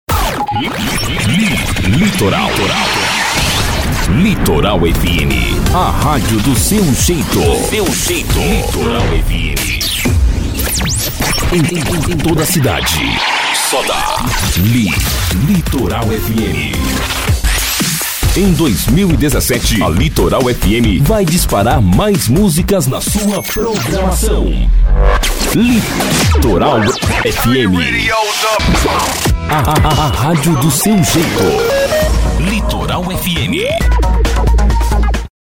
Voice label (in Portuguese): vinhetas voz locutor vinhetas radio